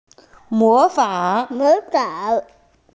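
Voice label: "mo2fang3" Mandarin Chinese, female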